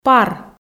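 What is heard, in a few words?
"пар", Komi, female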